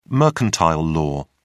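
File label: "mercantile law", British English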